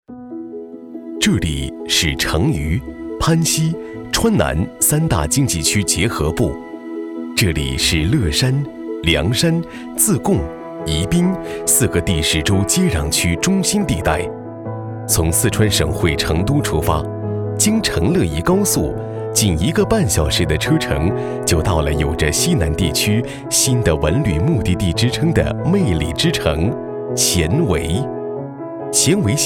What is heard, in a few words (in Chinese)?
专题 政府 稳重; 犍为